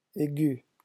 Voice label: "aigu", phonetics